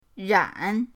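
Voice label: "ran3", Mandarin Chinese, third tone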